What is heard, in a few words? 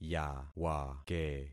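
"yawake", concatenated syllables; Affixing experiment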